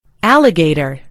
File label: "alligator", en GB; gcompris